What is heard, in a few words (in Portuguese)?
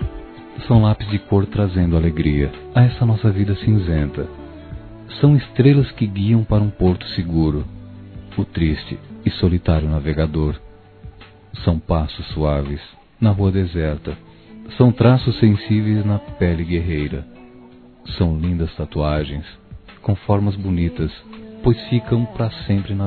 Aniversário Amigos Masculino; Telemensagens 2017; Aniversários; Aniversário de Amigos